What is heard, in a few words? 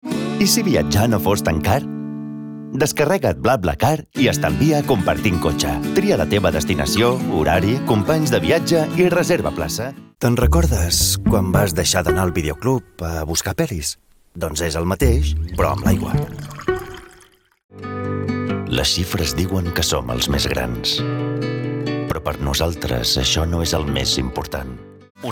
catalan announcements; espanhol da Espanha e catalão